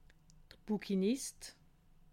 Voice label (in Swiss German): "booquinist", alsace